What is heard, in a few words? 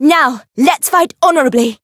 variable chant; azami